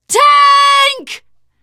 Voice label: warn tank, teen girl, survivor voice; source engine; left 4 dead 2